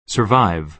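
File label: "survive", American English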